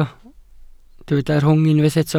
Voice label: "rhwng", Welsh

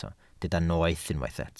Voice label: "noeth" Welsh

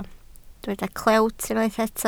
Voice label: "clewt", Welsh

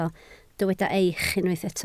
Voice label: "eich" Welsh

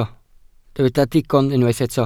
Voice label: "digon", Welsh

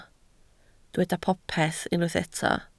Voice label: "popeth", Welsh

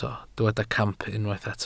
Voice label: "camp", Welsh

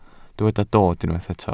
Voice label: "dod", Welsh

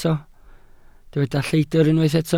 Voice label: "lleidr" Welsh

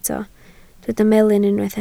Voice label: "melyn", Welsh

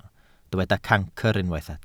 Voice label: "cancr", Welsh

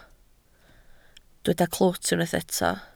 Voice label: "clwt", Welsh

